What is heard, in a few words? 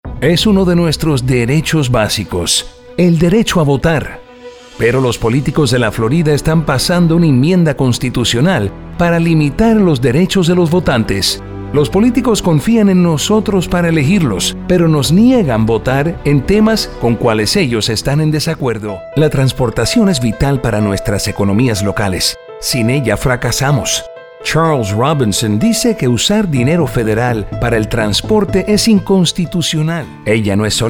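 Political Spanish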